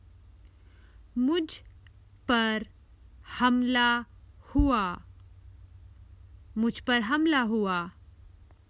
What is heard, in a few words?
I've been assaulted attacked, Common Hindi Phrases for travel